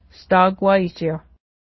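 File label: straighten it up, Cayuga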